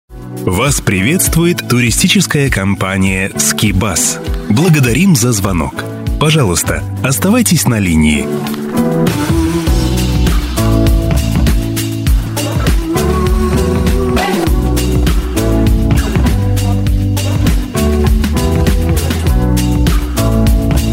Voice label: Diktor, IVR; SKI BUS